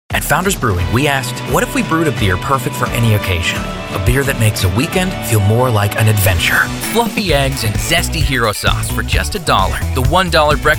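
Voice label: Commercial, voice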